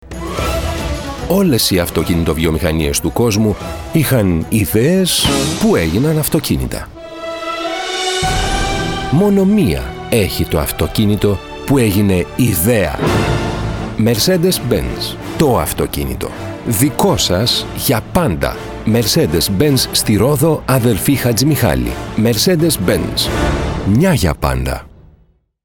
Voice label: Greek radio advert in Greek; Mercedes-Benz

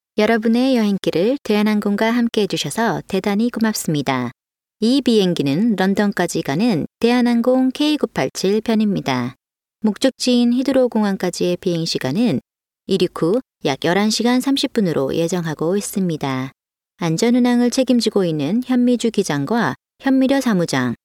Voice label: Korean Inflight

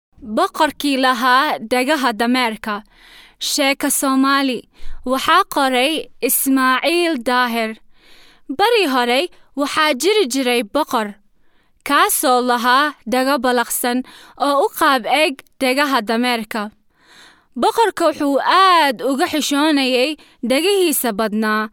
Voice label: female Somali

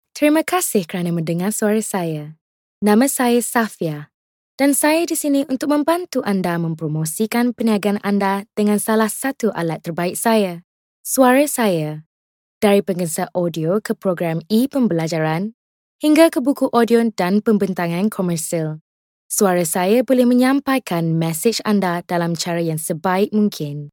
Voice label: Malay Corporate

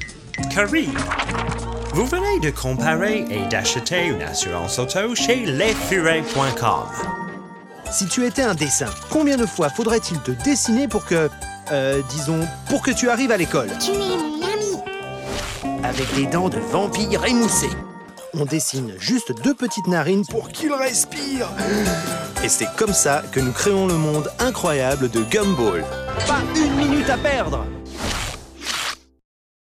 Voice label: French Cartoon Animation

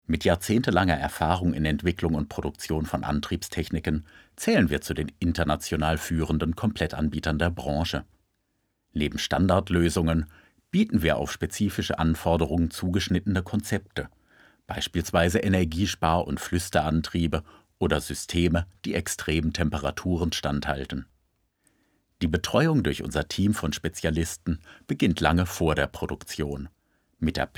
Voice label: German Corporate Narration

